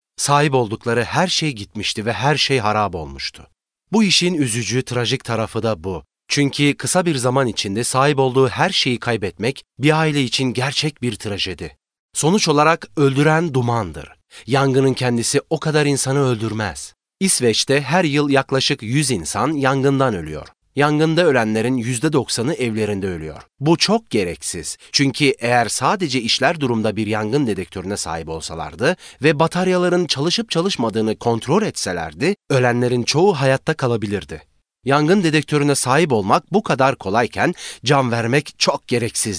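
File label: TR M narration, Turkish, male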